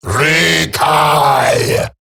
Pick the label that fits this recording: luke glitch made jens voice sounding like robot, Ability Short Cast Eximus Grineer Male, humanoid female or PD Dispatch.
Ability Short Cast Eximus Grineer Male